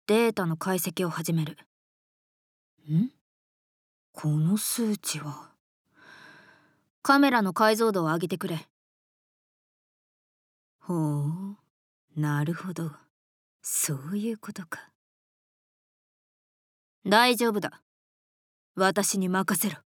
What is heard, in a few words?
Woman